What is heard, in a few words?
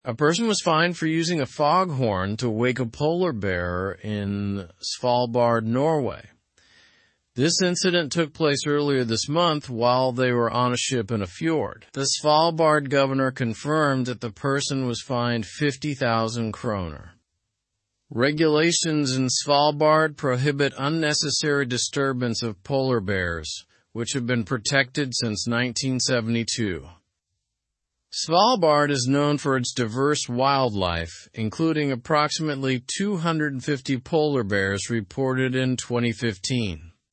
learn english through news upper intermediate; fine imposed for disturbing polar bear in svalbard